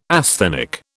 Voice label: "asthenic", British